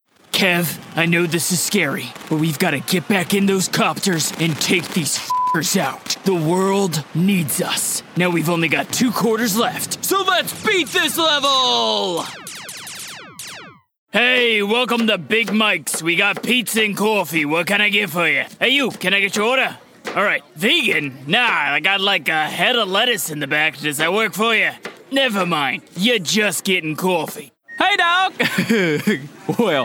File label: Animation; Animation Demo